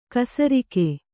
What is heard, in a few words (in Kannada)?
"ಖಾಸರೋಗ", Kannada